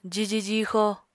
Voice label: "jiji jixo", Apatani